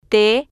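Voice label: "t", spanish, female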